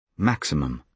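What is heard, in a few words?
"maximum", British English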